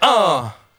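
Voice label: "uh", male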